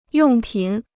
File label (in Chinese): "用品", Chinese